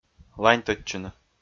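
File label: "ланьтӧдчыны", Komi, male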